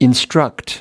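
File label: "instruct", US English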